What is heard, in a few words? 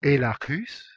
"elakhus", Ancient Greek